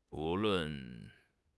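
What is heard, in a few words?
"wu2 lun4", Mandarin Chinese; HSK4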